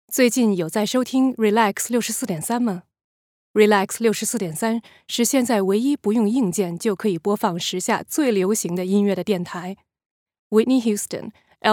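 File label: Chinese, radio presenting; GoLocalise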